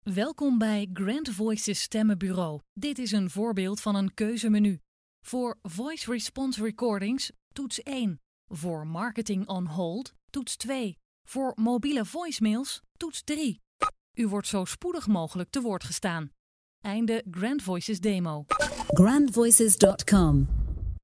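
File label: Dutch female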